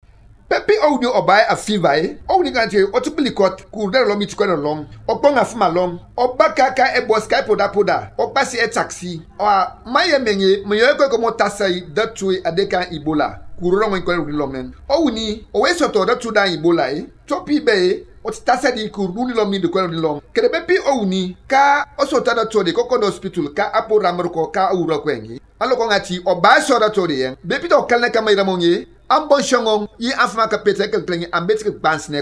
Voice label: Themne; ebola; radio-spots

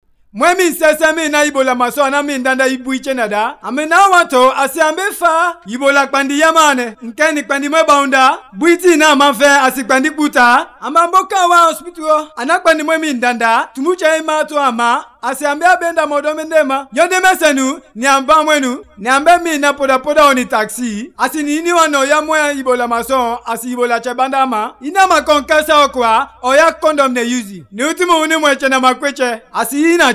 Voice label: Kono, radio spots; ebola; vhf

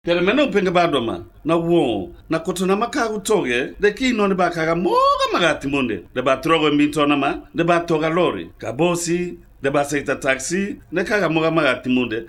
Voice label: Limba; ebola; radio-spots

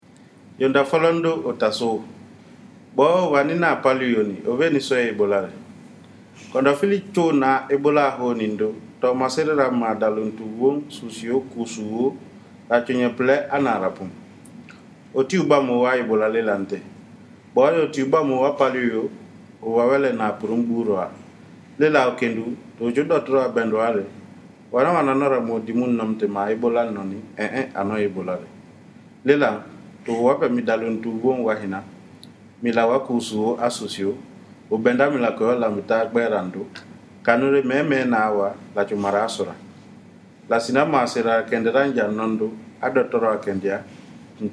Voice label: kissi; ebola; radio-spots; vhf

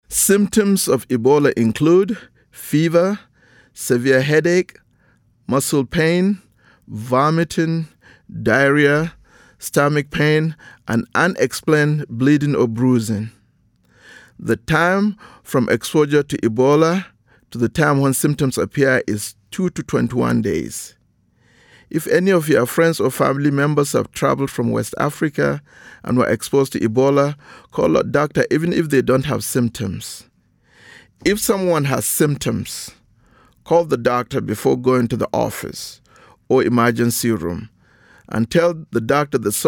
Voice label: English PSA Message; ebola; radio-spots; vhf

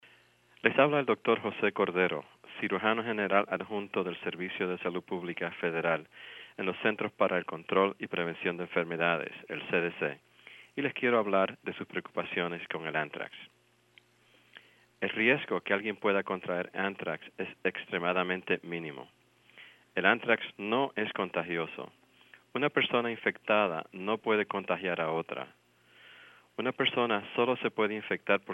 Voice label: spanish audio

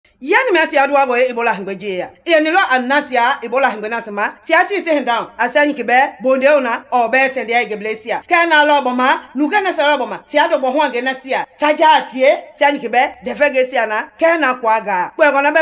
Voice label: Mende; ebola; radio-spots

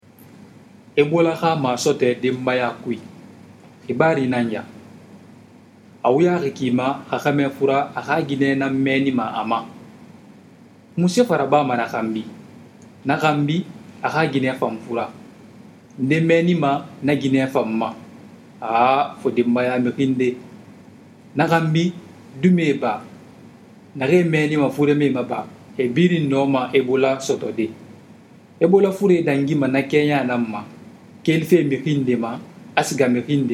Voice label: sousou; ebola; radio-spots; vhf

